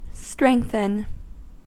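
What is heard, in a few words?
"strengthen", US English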